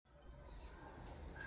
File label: voice message